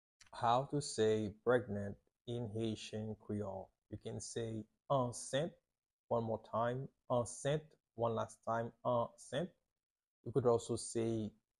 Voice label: "Ansent" Haitian Creole pronunciation by a native Haitian; How to say Pregnant in Haitian Creole